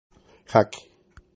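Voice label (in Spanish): "jaque", Spanish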